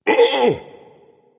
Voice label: surprise, voice; fenrir

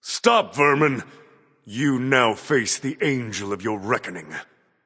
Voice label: taunt, voice; smite; tyr

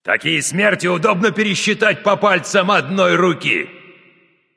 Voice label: kill, russian; caster

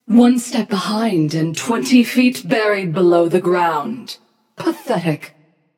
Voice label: taunt passion; chaac

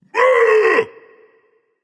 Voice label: battlecry, voice; Smite; ymir skin14

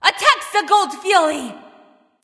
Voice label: vox attack; smite; arachne

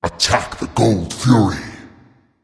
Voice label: vox attack; smite; thanatos

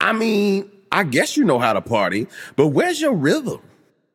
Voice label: taunt directed; baronsamedi; bacchus

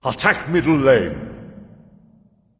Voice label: vox attack; smite; ra; voicepack

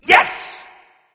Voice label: emote yes; smite; mercury; voicepack

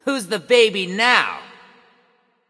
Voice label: kill streak, voicepack; smite; Vamana

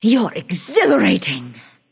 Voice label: vox emote; smite; arachne

